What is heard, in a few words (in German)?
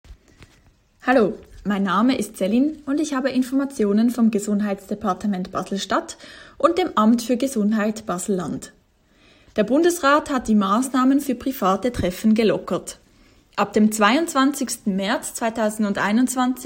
hochdeutsch; kantonsarztlicher dienst; medizinische dienste; informationen in verschiedenen sprachen